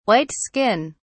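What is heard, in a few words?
"white skin", British English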